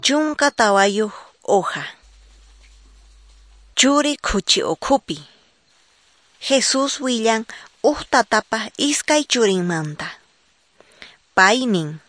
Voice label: quechua norte de bolivia apolo

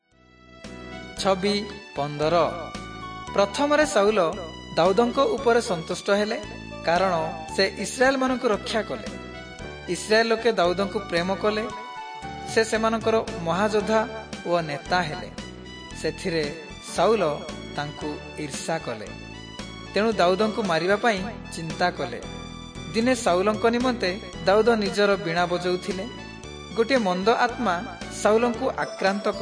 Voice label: southern oriya; servants of god

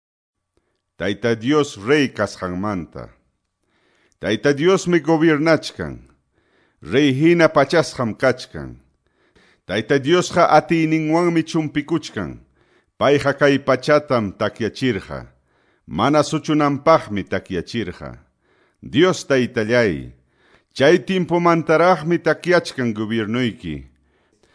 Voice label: salmos, quechua ayacucho

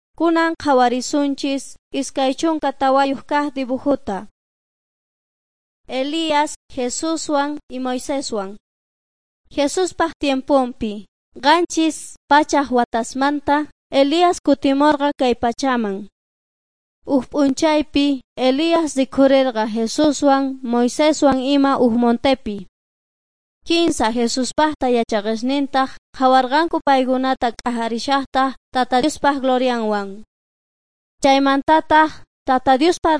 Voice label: quechua bolivian cochabamba; servants of god

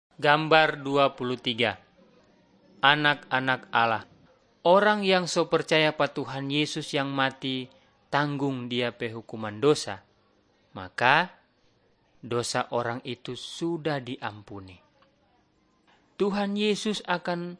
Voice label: malay manado; good news

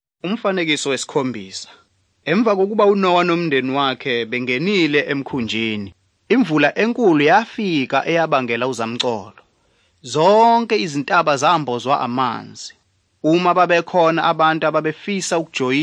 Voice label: zulu bible; good news

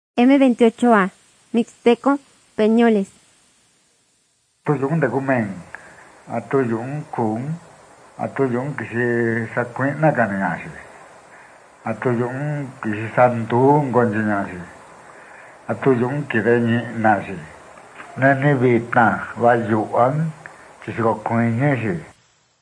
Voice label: mixtec diagnostic spanish mexico

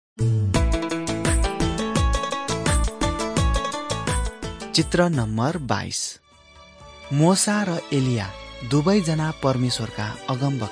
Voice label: mighty men of god nepali

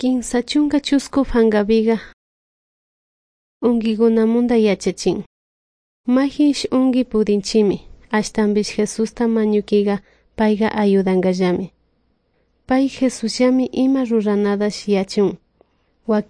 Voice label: good news quichua de salasaca

